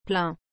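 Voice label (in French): "plein", French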